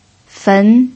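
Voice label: "fen", Mandarin Chinese, second tone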